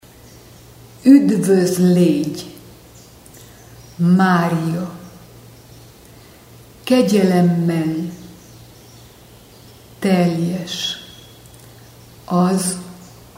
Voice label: ave maria hungaro pausado

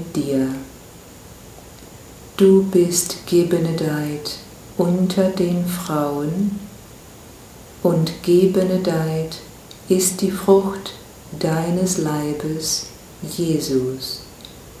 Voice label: ave maria aleman lento

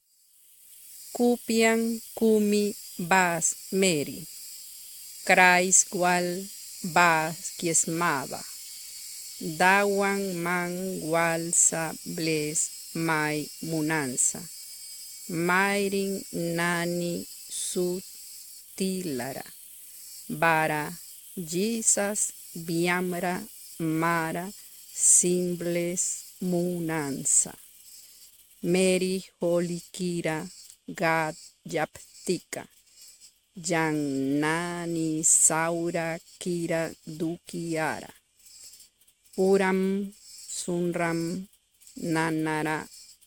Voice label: ave maria Miskitu pausado

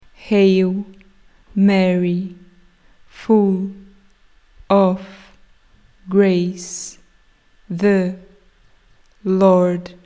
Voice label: ave maria ingles pausado

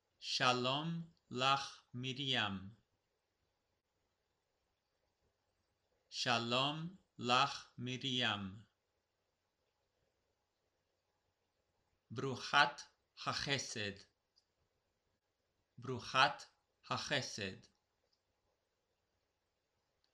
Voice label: ave maria hebreo lento

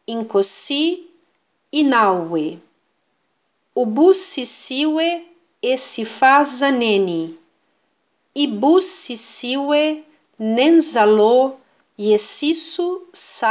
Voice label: ave maria zulu lento

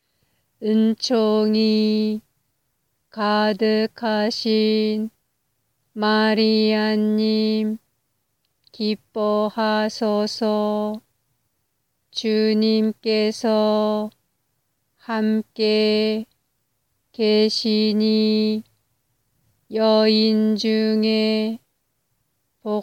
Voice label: ave maria coreano lento

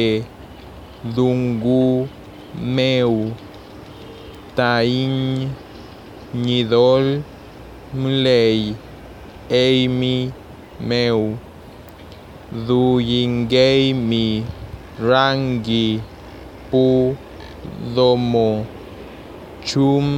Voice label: ave maria Mapuche lento

